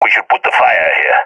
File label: request fire, balkan; vo; balkan epic